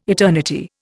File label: "eternity", Indian